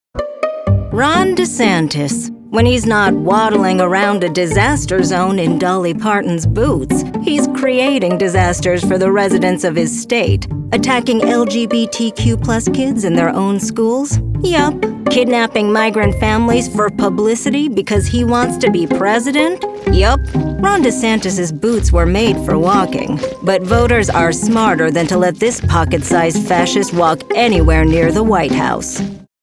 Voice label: Political AMUSING; Political Voiceover (Democratic); Professional Female Political Voiceover